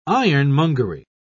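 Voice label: "ironmongery", British English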